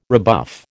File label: "rebuff", American